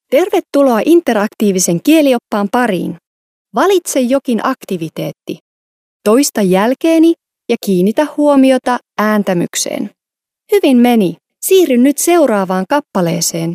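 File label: Finnish female corporate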